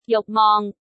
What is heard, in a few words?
"yug6 mong6", cantonese